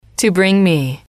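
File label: "to bring me", English, female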